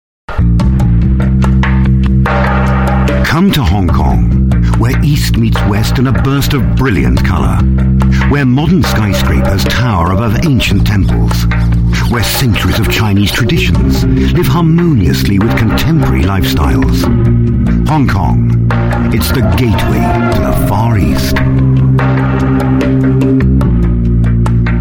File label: UK English Tourism, Male; Hong Kong Tourism